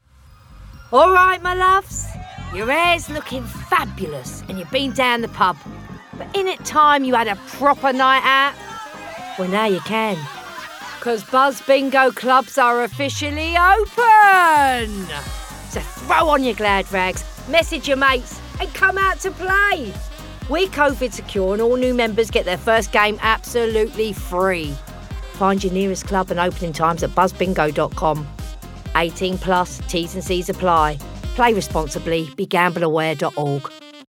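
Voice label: Fun, Charismatic, English; BuzzBingo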